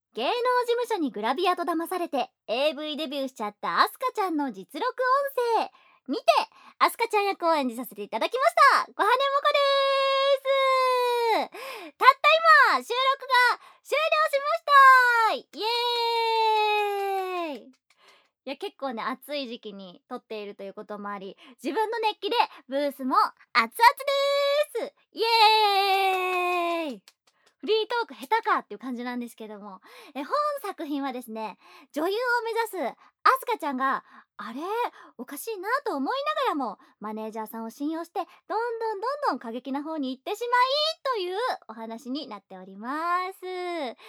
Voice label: FreeTalk, ASMR; 被艺能事务所谎称要拍写真而意外AV出道的明日香的实录音声